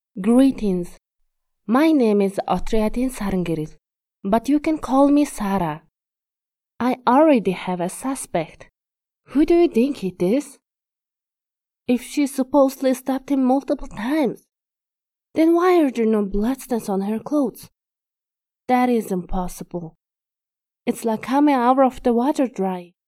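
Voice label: Mongolian, female, English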